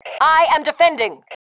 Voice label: iamdefending, FEM

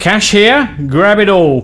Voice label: Drop Cash, voice commands, male; svencoop

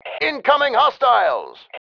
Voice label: incoming enemy, male

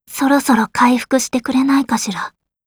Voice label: help, voice commands; svencoop; Blanc

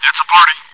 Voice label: cheer; svencoop

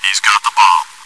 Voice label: chitchat, bot, voice commands; svencoop

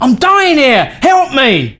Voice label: Dying, male, voice commands; svencoop

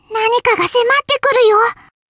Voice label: look out, voice commands Anime Loli; svencoop

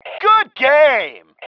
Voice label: GOOD GAME, male

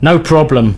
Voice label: Yes, male, voice commands; svencoop

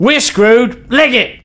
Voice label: Run, male, voice commands; svencoop

